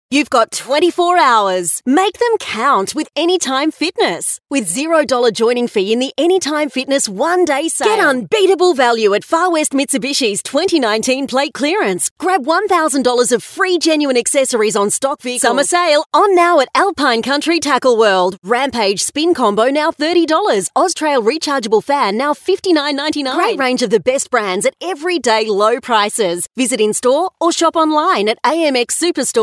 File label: Hard Sell